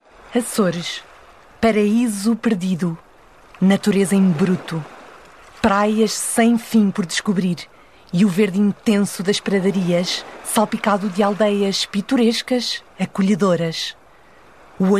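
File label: Portuguese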